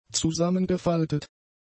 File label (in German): "zusammengefaltet", German; adjectives